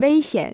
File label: "危险", china